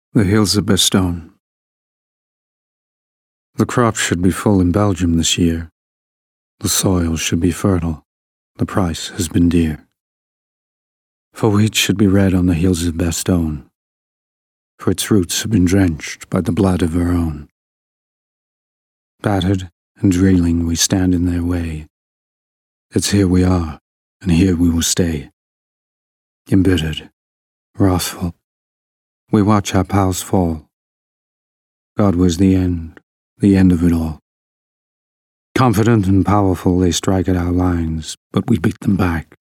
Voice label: poem; mohaa